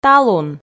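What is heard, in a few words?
"талун", Komi, female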